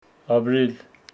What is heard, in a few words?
Homshetsi april